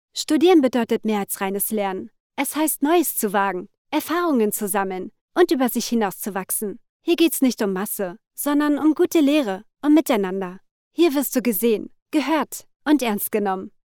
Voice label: Studentin

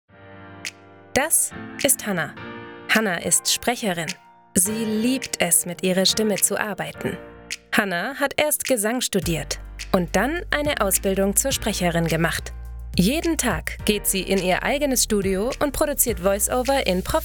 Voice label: Erklärvideo mit Musik

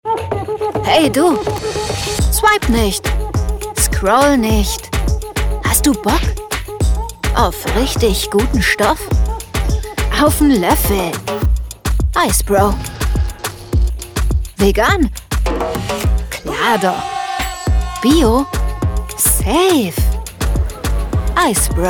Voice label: Werbung

